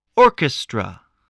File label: "orchestra", voice